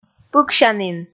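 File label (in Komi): "пыксянін", Komi, female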